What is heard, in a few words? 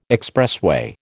"expressway", American